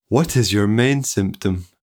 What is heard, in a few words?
paralanguage symptom over enthusiastic